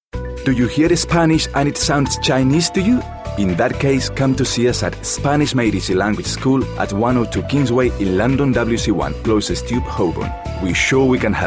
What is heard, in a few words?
ENG